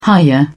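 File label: "hiya", British English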